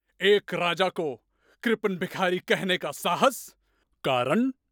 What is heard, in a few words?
Hindi MVO Animated Character Voice